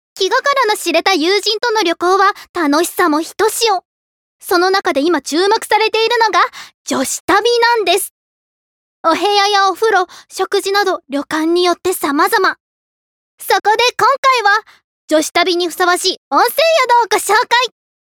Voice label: Narration Variety

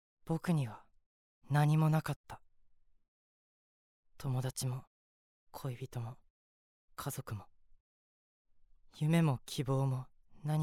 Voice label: Sickly Boy; 病弱な少年

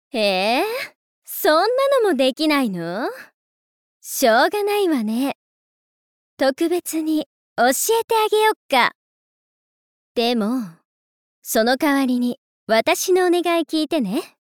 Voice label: Girl Noble, 高貴な女の子

